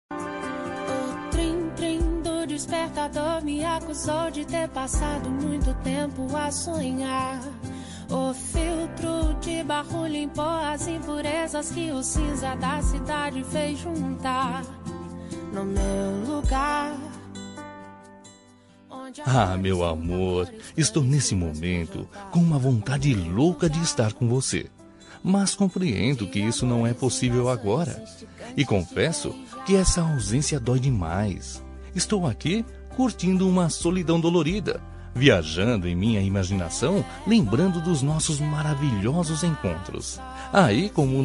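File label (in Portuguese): romântica amante masculina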